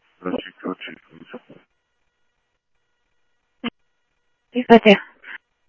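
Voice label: fire radio dispatch, Phoenix Fire; H-Scottsdale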